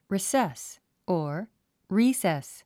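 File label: "recess", English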